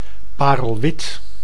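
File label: "parelwit", Dutch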